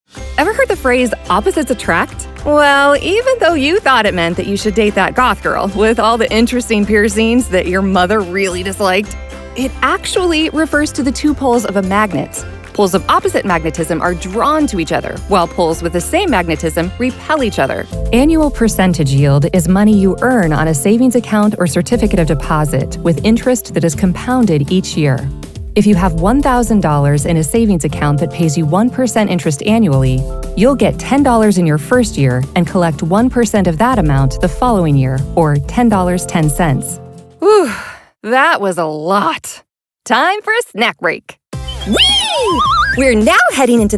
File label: women elearning